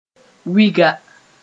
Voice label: "wiga", Moore

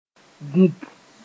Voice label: "vũp", moore; together

